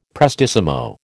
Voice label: "prestissimo", American